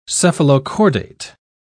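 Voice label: "cephalochordate", British English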